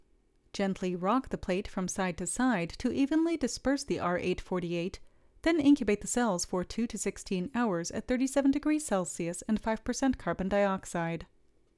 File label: Voiceover